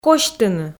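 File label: "косьтыны", Komi, female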